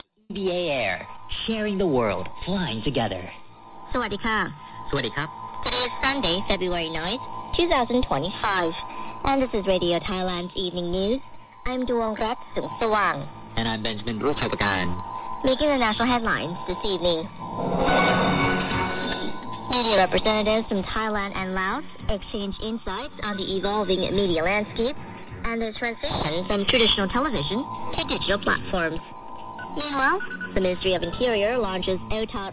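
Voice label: English AM; 7475,0; Radio Thailand; Udon Thani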